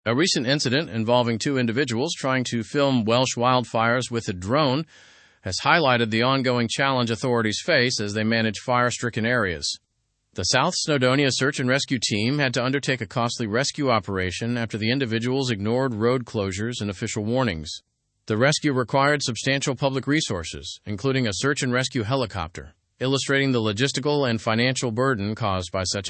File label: learn english through news advanced fast; challenges of filming wildfires in wales